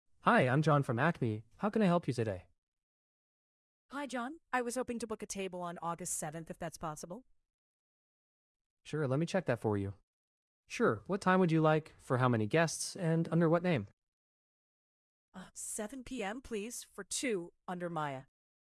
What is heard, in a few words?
conversation; duplex voice agent evaluation; recorded example